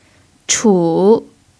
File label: "chu3", chinese